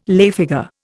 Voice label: "lay figure", Indian